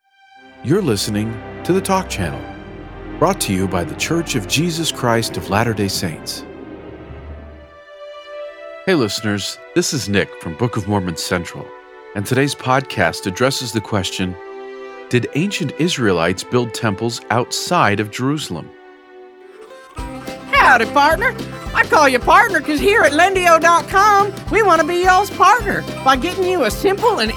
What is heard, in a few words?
Commercial and Narration Voice